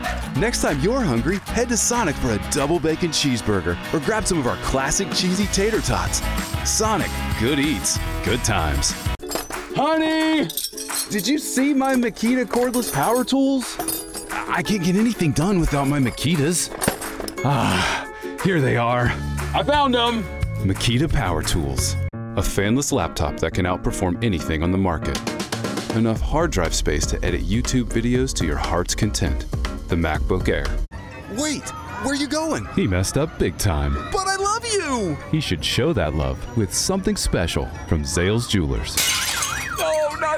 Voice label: commercial